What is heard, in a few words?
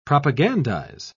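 "propagandize", British English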